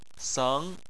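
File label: "seng" tone 2, Chinese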